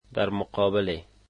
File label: in front of, dari